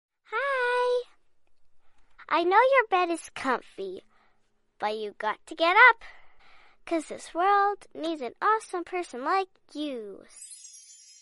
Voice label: Hi I Know You Cute Baby Message Tune